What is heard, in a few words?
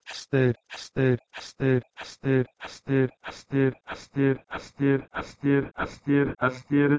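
Proto-Indo-European h2ster to Kurmanji astire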